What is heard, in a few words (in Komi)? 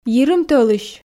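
"йирым тӧлысь", Komi, female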